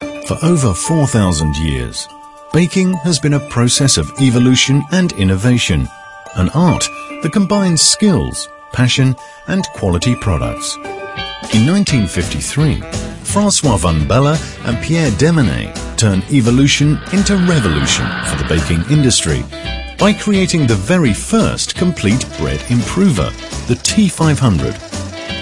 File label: corporate; Puratos